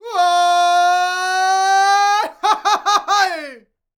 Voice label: MEXICO VOICE, MEXICAN; ILIO; Vocal Planet VOL-5

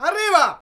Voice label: "Arriba!", Vocals; Roland BK-5

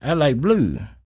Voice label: SPOKEN, Blues Soul, TALK

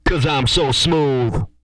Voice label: so smooth, Vocal, R&B Dance, hip-hop; TB HIP-HOP

